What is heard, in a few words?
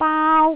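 "baau1", Cantonese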